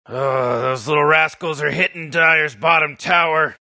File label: announcer dire bottom tower under attack; Rick and Morty